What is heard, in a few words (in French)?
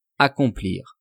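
"accomplir", French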